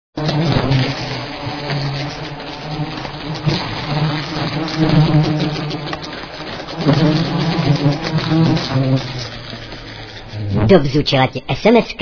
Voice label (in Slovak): sms hlásky cz